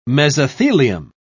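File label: "mesothelium", British English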